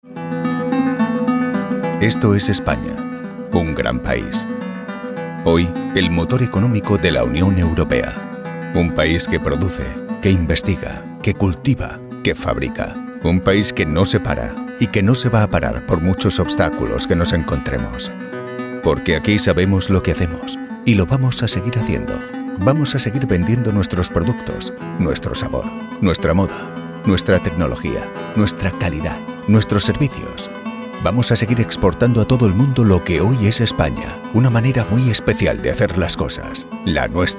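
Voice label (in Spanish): spanish political ads, Español voice actor, Una voz grave; requiera transmitir seguridad; Anuncios políticos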